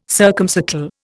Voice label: "circumcircle", Indian

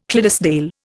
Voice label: "Clydesdale", Indian

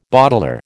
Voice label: "bottler", American

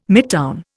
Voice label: "midtown", Indian

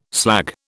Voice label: "slag", British

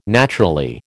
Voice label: "naturally", American

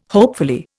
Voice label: "hopefully", Indian

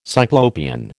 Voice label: "Cyclopean", American

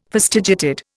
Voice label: "fastigiated", Indian

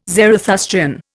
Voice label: "Zarathustrian", Indian

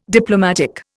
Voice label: "Diplomatic", Indian

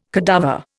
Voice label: "cadaver", Indian

